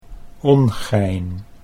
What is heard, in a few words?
"ongein", Dutch